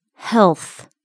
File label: "health", English